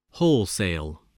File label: "wholesale", voice, english